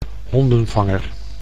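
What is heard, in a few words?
"hondenvanger", Dutch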